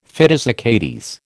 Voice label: "fidus Achates", American